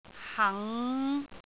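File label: "hang5", Cantonese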